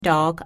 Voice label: "Daag", Pennsylvania Dutch; g (end); ck in brick